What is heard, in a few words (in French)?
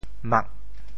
"mak", syllabes